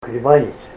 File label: german, Ninilchik Russian